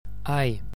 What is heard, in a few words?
stressed aidh, aigh, gaelic